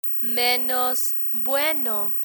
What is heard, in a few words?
"menos bueno", Spanish